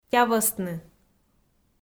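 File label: "тявӧстны", Komi, female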